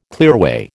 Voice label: "clearway", American